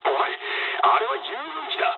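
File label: Japanese player killed ally mood low; War Thunder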